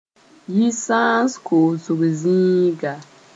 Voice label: "yisãns koosg zĩiga", Mooré